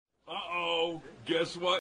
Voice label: hump day camel commercial ringtone funny; geico